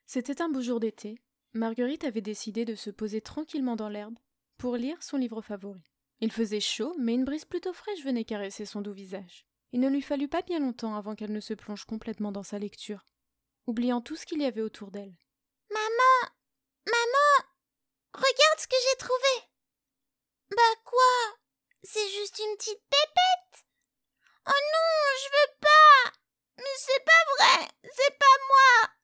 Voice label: French, Female